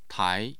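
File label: "tai2", Mandarin Chinese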